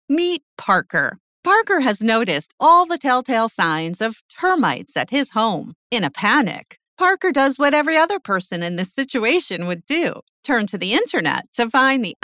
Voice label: Explainer VO

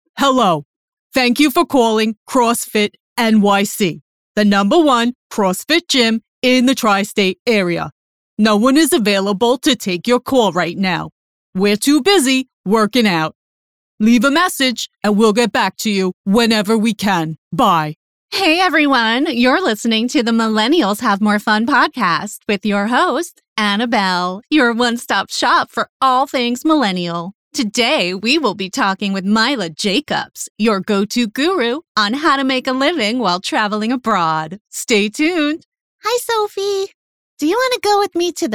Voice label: Voice Characters

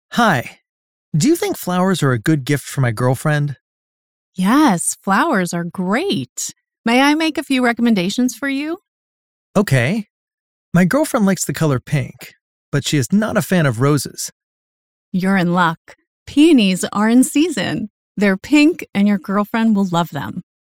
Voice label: Couple ESL Language Learning

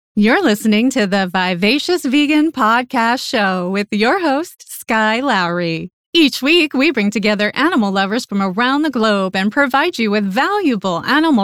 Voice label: Voice Podcasts

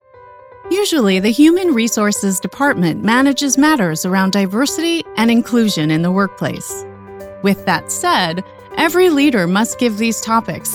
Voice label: Couple Elearning Corporate

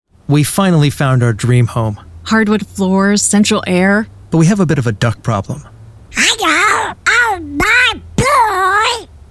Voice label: Couple Commericial Funny

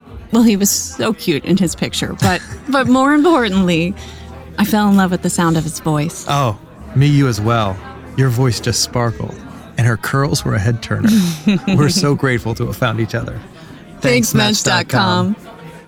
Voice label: Couple Commercial Sweet Sentimental

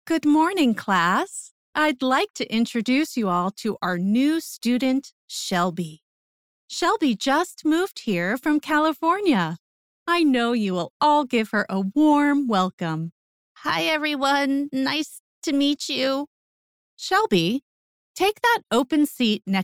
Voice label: ESL voiceovers

